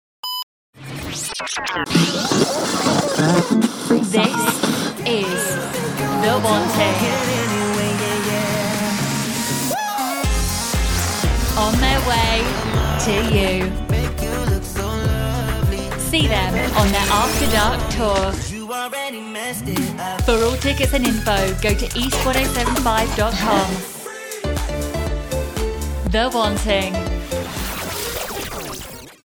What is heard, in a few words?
Radio, british female voiceover